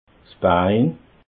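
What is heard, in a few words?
"bein", Alsatian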